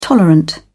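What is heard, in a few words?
"tolerant", British English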